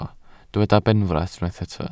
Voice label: "benfras", Welsh